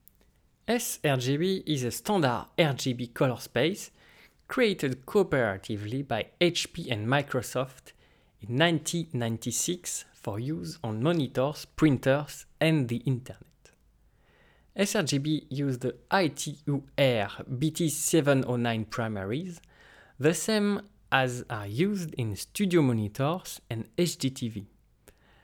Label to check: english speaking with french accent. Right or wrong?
Right